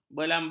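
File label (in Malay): "belambang", Malay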